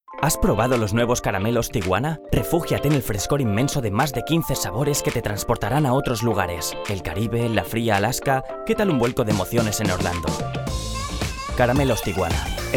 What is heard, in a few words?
male spanish food comercial; ideasonora